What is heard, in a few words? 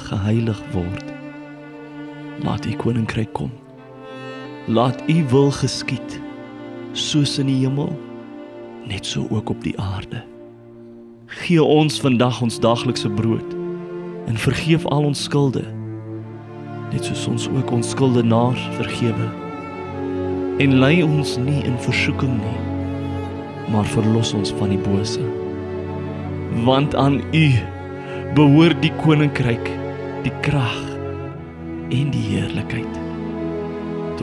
Onse Vader The Lord s Prayer VO with music